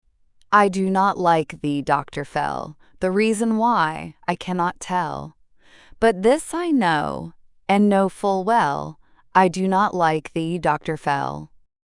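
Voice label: I Do Not Like Thee Doctor Fell, female US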